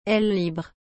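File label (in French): "aile libre", French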